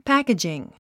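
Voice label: "packaging", English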